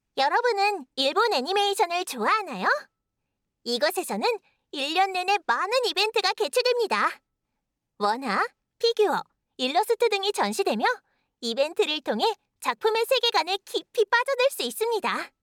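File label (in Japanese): かわいい、明るい, ナレーション, 韓国語; 韓国ソウル出身。日本の専門学校、声優養成所を卒業。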